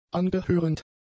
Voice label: "angehörend", German; adjectives